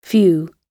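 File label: "few", British English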